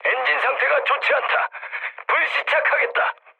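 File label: Korean damaged engine mood med; speech; War Thunder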